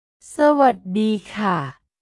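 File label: "sa wat dii kha", Thai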